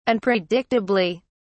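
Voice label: "unpredictably", British English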